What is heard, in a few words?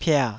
"pia3", taiwanese